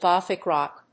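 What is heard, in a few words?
"Gothic rock", English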